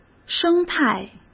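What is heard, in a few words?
"生态", Mandarin Chinese; chinese flashcards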